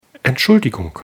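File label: "entschuldigung", German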